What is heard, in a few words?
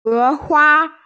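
"he hua", Mandarin Chinese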